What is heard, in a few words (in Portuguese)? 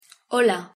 pronuncia hola